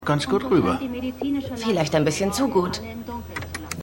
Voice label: Reporterin; MEMORIAL HOSPITAL - DIE TAGE NACH HURRIKAN KATRINA (DF 2022); Five Days at Memorial

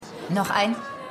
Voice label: Barfrau; Black Lightning

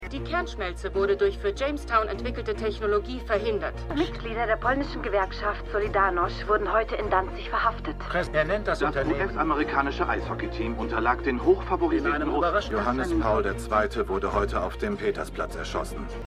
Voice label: Nachrichten; Stimmproben; For All Mankind

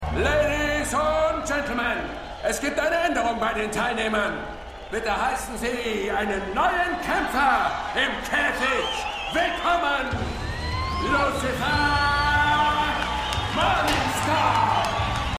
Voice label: Ringsprecher; Lucifer

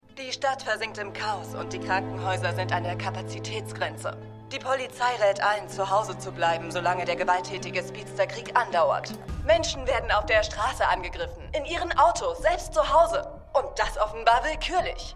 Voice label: Nachrichtensprecherin; The Flash

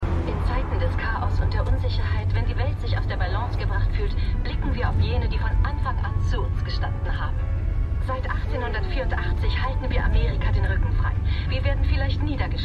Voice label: Radiosprecherin Nachrichtensprecher

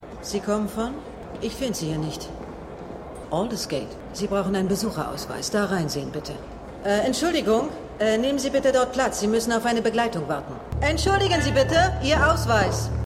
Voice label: Empfangsdame; Slow Horses

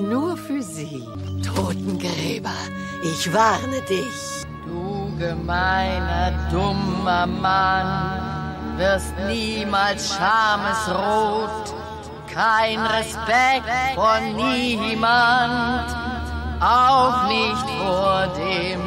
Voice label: Alte Frau; X Factor

